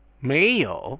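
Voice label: "meiyou" Chinese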